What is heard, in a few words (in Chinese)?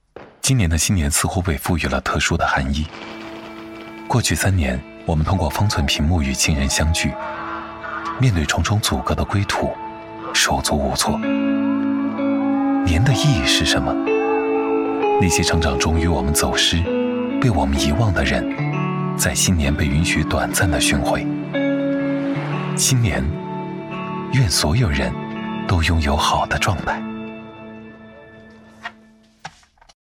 男 【温暖男声】 广告, 磁性沉稳 高端大气; lululemon